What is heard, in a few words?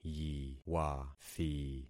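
"yiwathi", concatenated syllables; Affixing experiment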